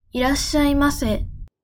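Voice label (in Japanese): "いらっしゃいませ", Japanese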